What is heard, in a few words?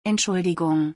sorry in german